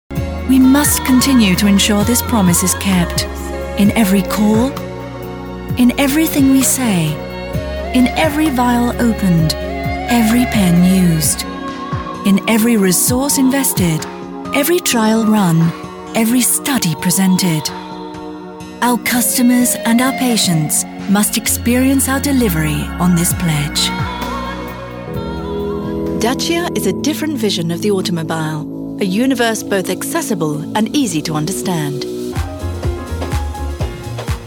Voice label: CORPORATE